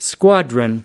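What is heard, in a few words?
"squadron", American English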